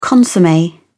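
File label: "consomme", English, British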